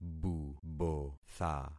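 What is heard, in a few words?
"bubotha", concatenated syllables; Affixing experiment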